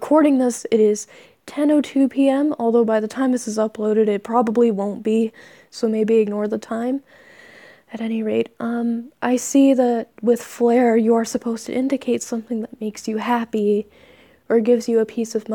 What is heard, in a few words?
Verify Me, Pretty Please, Verification, Giggles, A bit rambly